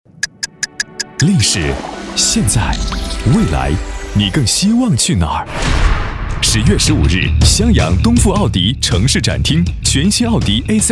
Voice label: 高端男洋气动感; 奥迪

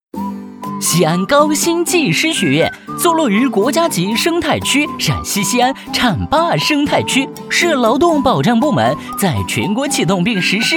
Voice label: 动画轻松活泼年轻; 西安高新技师学院ＭＧ动画

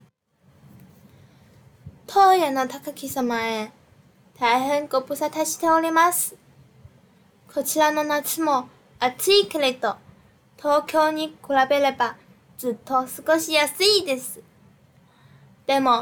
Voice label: 日语; 樱花抄

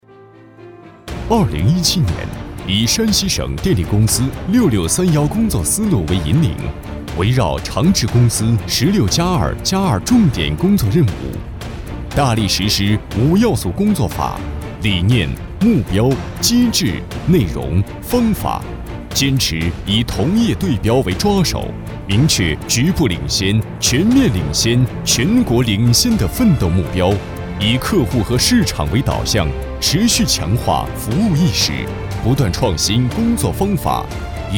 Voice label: 浑厚大气专题

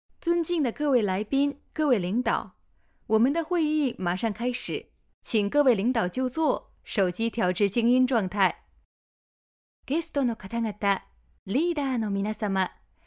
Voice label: 中日双语会场提示

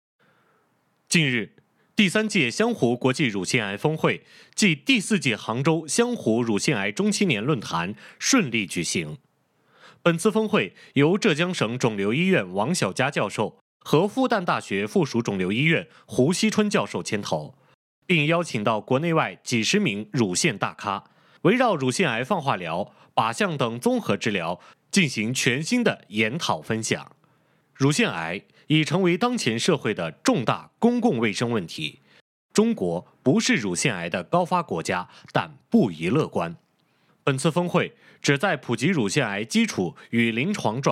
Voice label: 新闻

